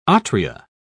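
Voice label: "atria", English, British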